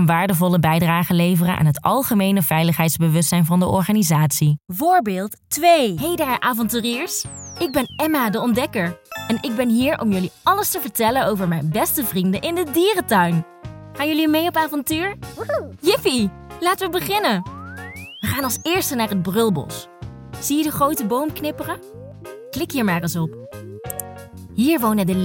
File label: E-Learning